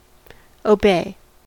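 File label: "obey", US English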